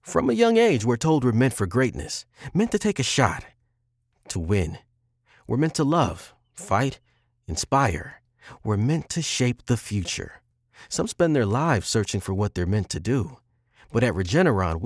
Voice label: Company Recruitment Ad - Knowledgeable, Intelligent, Knowledgeable